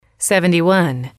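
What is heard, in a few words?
"seventy one", English, female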